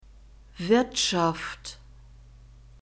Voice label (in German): "wirtschaft", German; nouns gender feminine